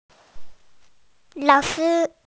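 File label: "teacher", Mandarin, hearing-impaired; lao3shi1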